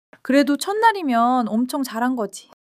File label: joy; monologue